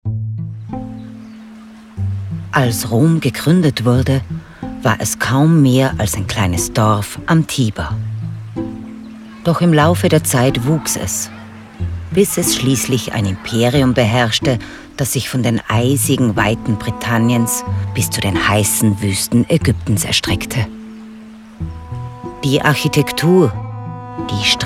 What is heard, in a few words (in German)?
Doku; Rom